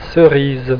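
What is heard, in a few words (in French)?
"cerise", French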